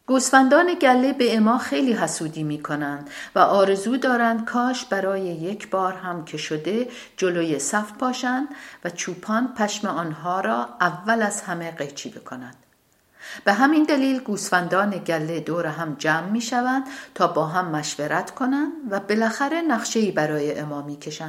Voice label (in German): farsi